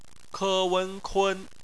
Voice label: "kun", Chinese; phonetics; bpmf